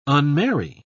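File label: "unmarry", English, British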